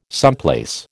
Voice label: "someplace", American